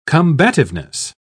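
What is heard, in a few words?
"combativeness", British English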